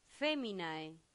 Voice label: "feminae", Latin; santillana